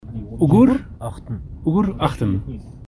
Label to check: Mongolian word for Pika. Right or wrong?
Right